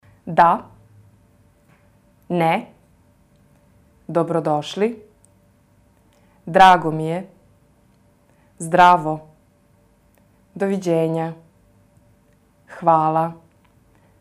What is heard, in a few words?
Serbian